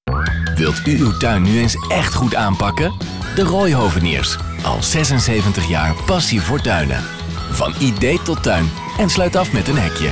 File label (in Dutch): Radiocommercial; De Rooy Hoveniers